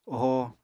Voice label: "ohoo", Apatani; [ohoo] v. feed